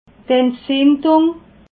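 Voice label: "entzindung", Alsatian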